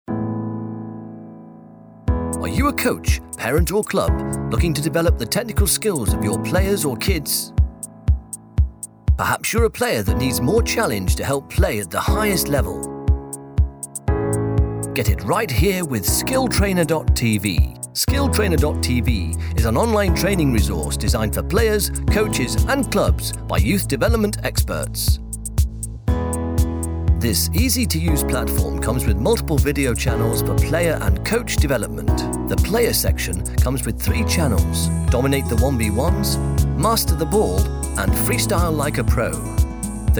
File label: Explainer advertising new app